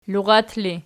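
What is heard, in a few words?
"lurat" ergative, Archi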